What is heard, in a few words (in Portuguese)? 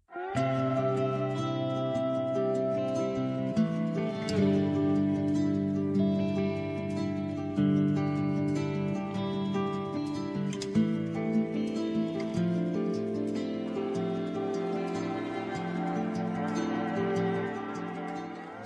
Aniversario Amigo de Faculdade Masculino, telemensagem